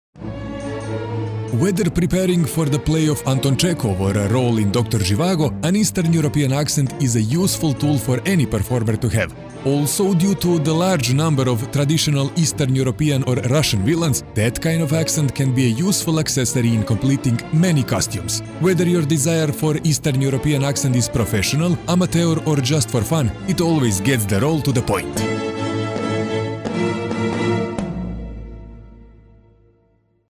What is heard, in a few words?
croatian male english